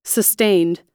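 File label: "sustained", American English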